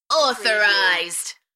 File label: UK female "Authorized" Enthusiastic; beanstalk audio